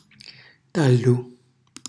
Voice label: "tallu", Calabrese; tralcio; cucina calabrese

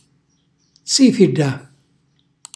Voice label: "zìfilla", calabrese; scintilla; cucinacalabrese; glossario

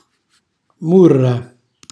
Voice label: "murra", Calabrese; gioco della morra; cucina calabrese; Glossario

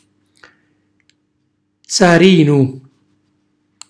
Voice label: "zzarinu", calabrese; sedere, fondo schiena; cucinacalabrese; glossario

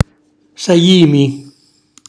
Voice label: "sajimi", Calabrese; cucina calabrese

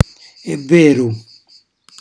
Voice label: "evveru", Calabrese; cucinacalabrese; glossario

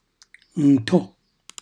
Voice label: "nto", Calabrese; cucinacalabrese; glossario

